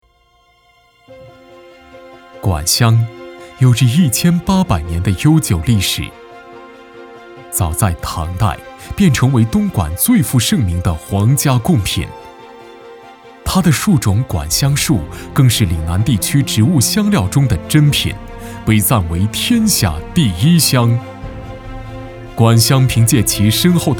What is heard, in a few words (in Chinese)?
男, 大气汇报; 香-聚大岭山